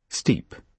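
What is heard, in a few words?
"steep", British English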